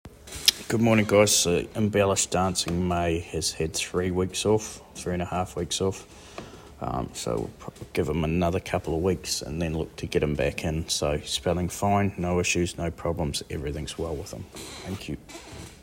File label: voice note; Dancing May Embellish NZ